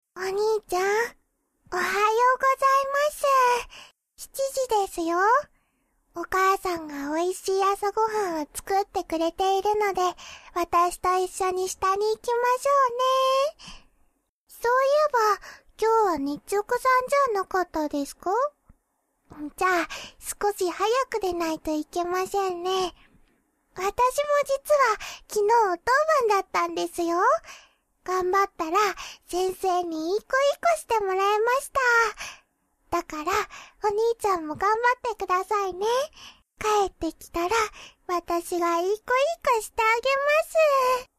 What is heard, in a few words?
little girl; 幼女; ふわふわロリ妹